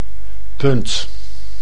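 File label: "punt", Dutch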